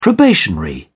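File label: "probationary", British English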